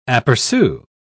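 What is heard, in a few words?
"apercu", British English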